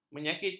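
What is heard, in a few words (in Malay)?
"menyakiti", Malay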